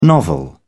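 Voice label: "novel", British English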